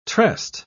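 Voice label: "tressed", British English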